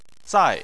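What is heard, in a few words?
"zai", Mandarin Chinese, fourth tone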